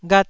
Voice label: "ghat1", Taiwanese